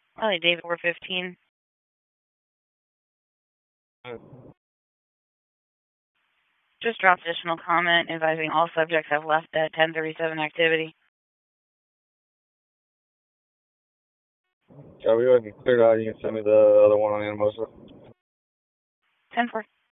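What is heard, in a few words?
Police Southeast District Dispatch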